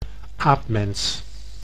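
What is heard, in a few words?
"aapmens", Dutch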